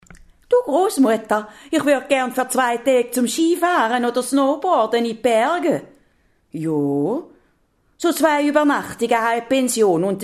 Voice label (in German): Werbung St. Galler und Alt Basel Deutsch